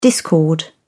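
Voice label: "discord", English, British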